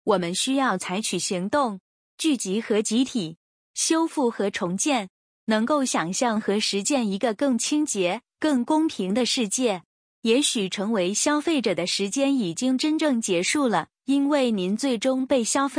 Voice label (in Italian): Cinese